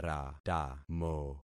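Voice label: "ratamo", concatenated syllables; Affixing experiment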